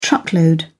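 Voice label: "truckload", British English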